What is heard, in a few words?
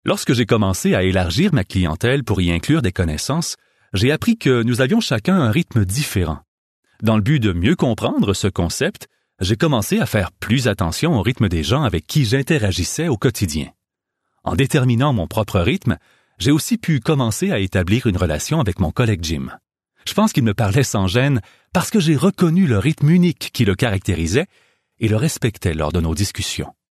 French Canadian, corporate; voice over